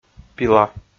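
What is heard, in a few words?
"пила", Komi, male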